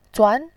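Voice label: "zuan" Mandarin Chinese, second tone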